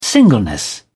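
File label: "singleness", British English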